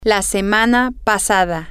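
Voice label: last week, Spanish, female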